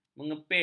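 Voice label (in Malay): "mengepil", Malay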